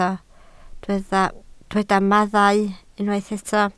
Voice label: "mathau", Welsh